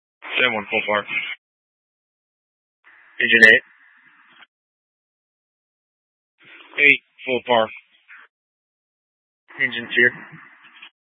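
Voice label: Incident Tac 1, Scanner audio recording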